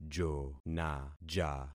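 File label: "jonaja", concatenated syllables; Affixing experiment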